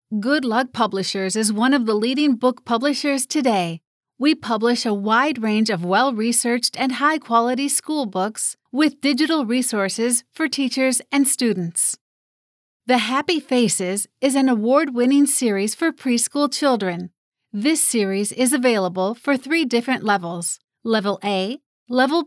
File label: elearning